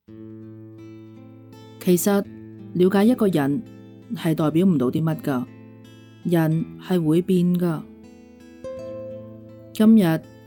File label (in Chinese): 独白 冷淡 平静 独白; 王家卫